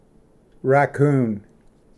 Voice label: "ᎬᏟ", Pronunciation; raccoon